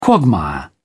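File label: "quagmire", English, British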